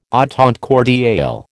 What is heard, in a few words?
"entente cordiale", American